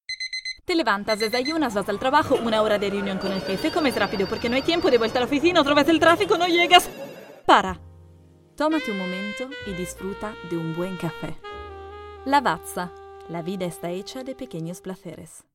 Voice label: spanish commercial